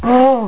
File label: OH MALE; PEOPLE